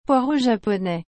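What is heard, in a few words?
"poireau japonais", French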